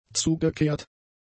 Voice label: "zugekehrt", German; adjectives